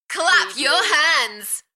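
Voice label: UK female, Enthusiastic, voice drop; Clap your hands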